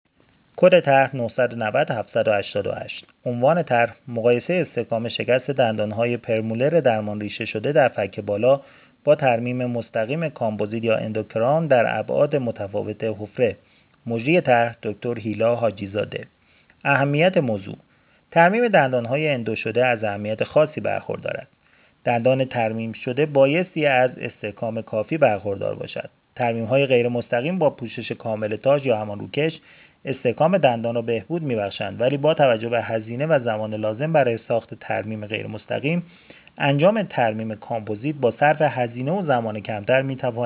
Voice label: voice recorder; tarjoman